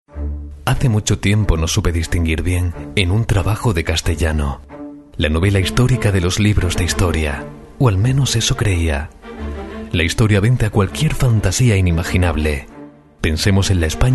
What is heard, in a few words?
narration